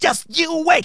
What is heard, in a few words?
"JUST YOU WAIT", Speech, Soul Man